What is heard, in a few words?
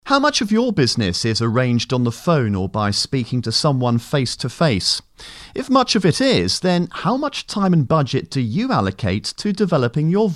voice over